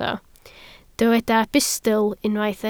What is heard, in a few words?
"bustl", Welsh